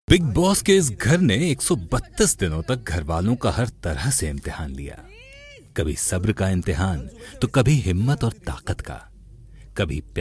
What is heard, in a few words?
hindi